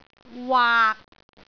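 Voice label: "waak6", Cantonese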